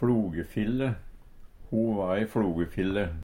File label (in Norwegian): "fLogefille", Numedalsmål; Ho va ei fLogefille